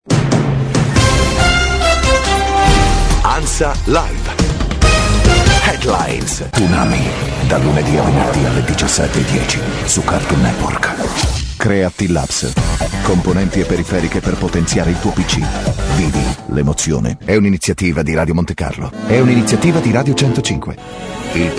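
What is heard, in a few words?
Italian male voice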